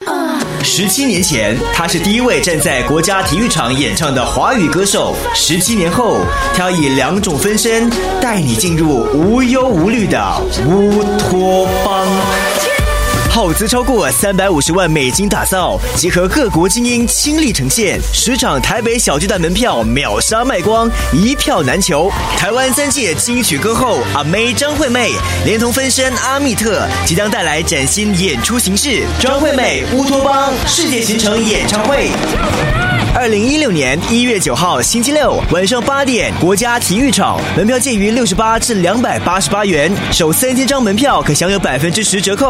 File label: Mandarin Male Trailer; China; Ameiutopia